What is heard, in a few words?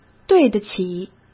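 "对得起", Mandarin Chinese; hsk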